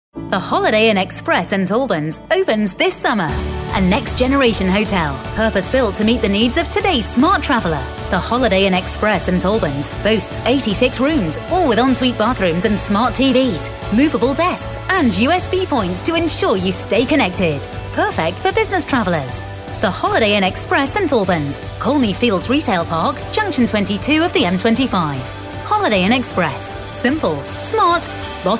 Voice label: radio response